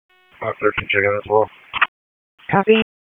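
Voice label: Raleigh Police Southeast District Dispatch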